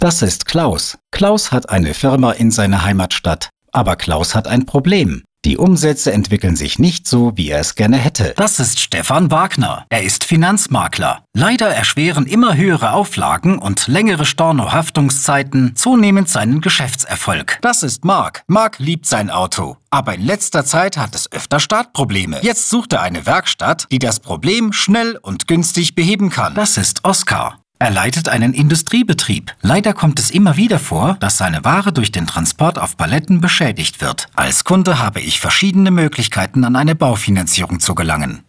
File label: Explainer Compilation